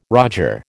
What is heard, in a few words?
"roger", American